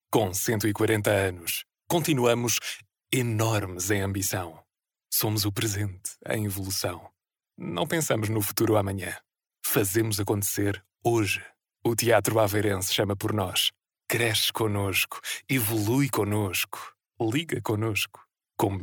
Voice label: male portuguese voice over talent narrative, portugal